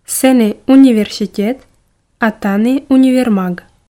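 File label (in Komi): сэні университет а тані универмаг, Komi, female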